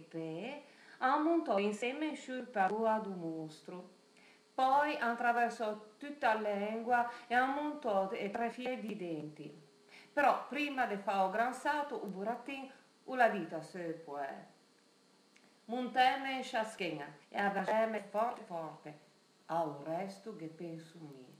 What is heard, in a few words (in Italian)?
Pinocchio Genovese